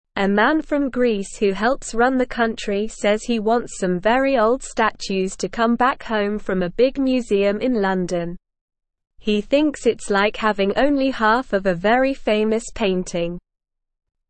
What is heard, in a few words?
English Beginner SLOW Reading; Greece wants old statues back from London museum